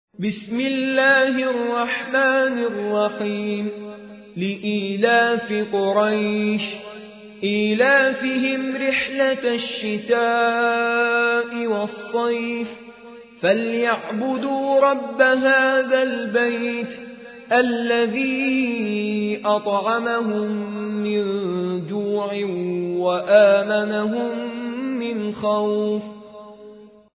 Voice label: ترتیل; سوره قریش

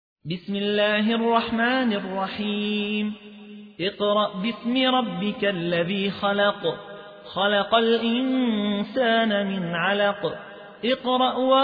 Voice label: ترتیل; یحیی حوی; سوره علق

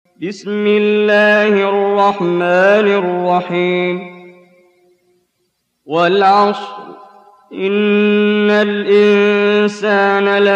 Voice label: ترتیل سوره عصر; استاد محمد جبریل

